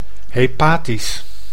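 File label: "hepatisch", Dutch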